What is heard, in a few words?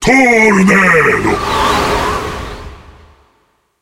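skill tornado enemy, Japanese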